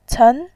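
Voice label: "cen", Mandarin Chinese, tone 2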